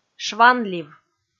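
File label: "шванлив", Komi, female; kpv audio dict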